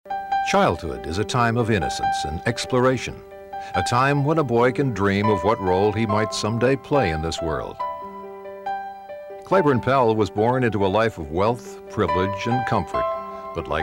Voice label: Narration VO